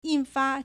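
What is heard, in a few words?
"印发 yìnfā", Chinese; 印發; verb; to publish; to print and distribute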